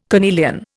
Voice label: "carnelian", Indian